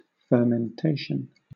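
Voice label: "fermentation", British English; pronunciations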